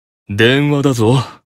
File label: Call Notification Voice; Lucifer